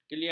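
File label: "keliar", Malay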